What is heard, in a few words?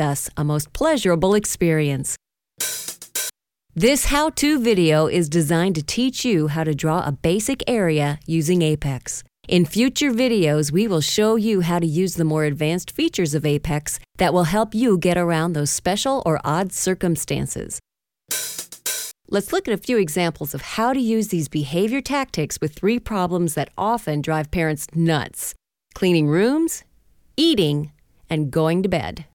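American narration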